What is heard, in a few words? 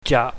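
"jaap", Cantonese, low tone; za; xi; zha; xia; ji; shi; shen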